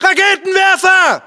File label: US threat rpg, battlechatter